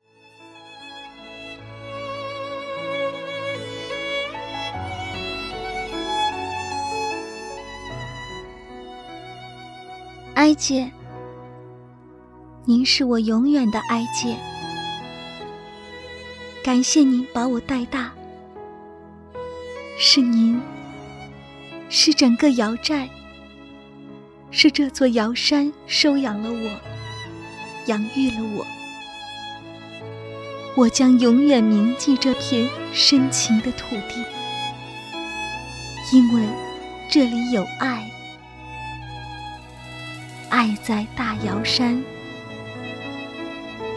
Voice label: 女 人物表演 孩子感人

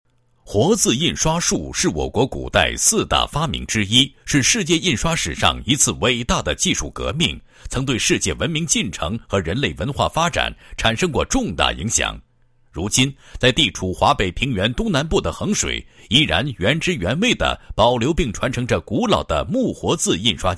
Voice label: 男-历史文化-木活字印刷技艺, 配音案例; 衡水木活字印刷技艺

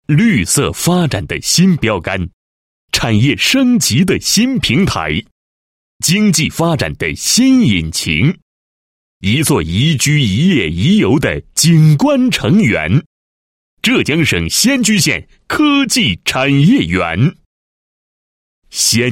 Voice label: 男-房地产-某县科技产业园宣传简介, 配音案例

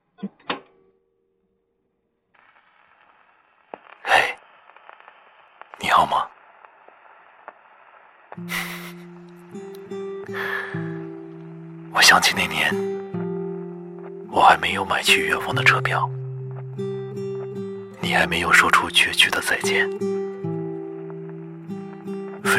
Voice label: 男 广告 磁性 温情 治愈 抒情

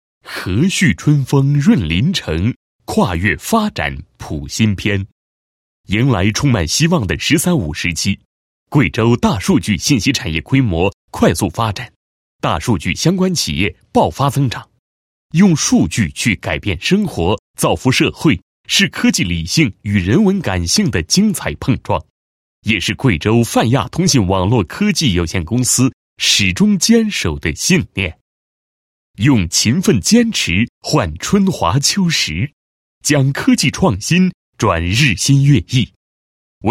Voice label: 男 专题 公交wifi解说录音; 泛亚科技

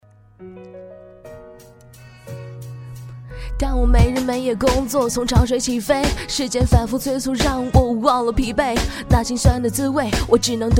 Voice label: 女 说唱 假装很酷

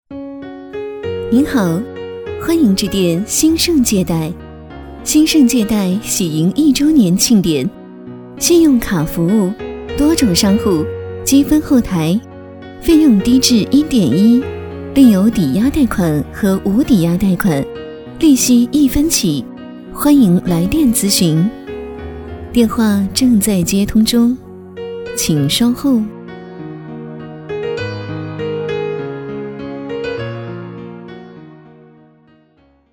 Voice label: 女, 彩铃, 信贷

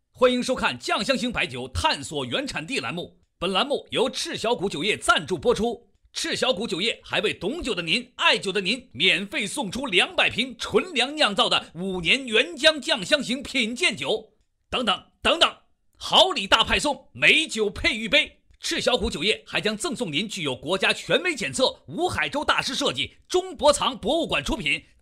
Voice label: 男 电视购物广告

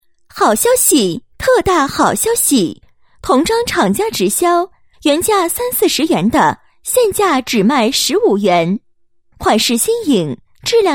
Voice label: 女 促销类 广告

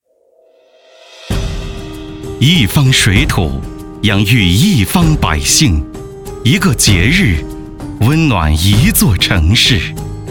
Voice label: 专题 大气; 哈尔滨; 美邻节

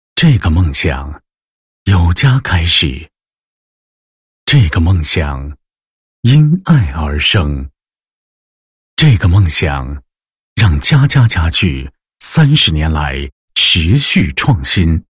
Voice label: 男 宣传广告 宣传介绍; 嘉嘉家具

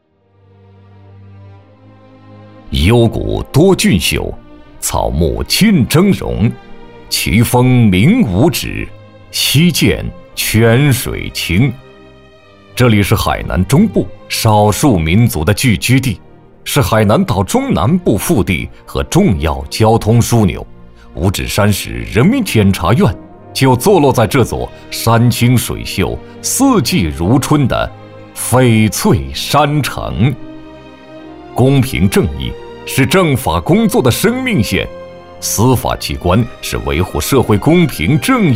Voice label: 男 专题 检察院专题片 浑厚 沉稳, 国语男声配音

